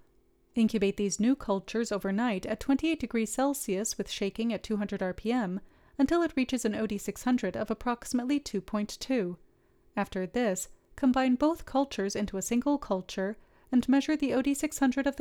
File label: voiceover